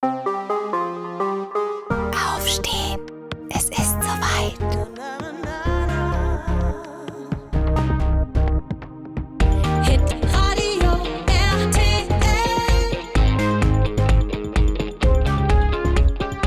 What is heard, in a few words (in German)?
Handy Weck App, telefonansagen